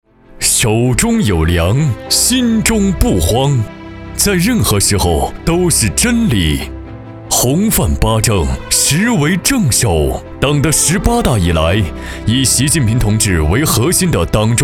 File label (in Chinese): 专题 大气浑厚; 龙泉粮油实业有限公司